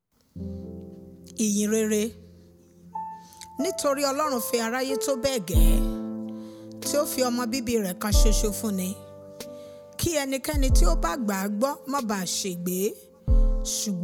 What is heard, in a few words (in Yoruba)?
Yoruba version of The Gospel